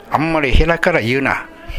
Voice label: "herakara", minamiyamagata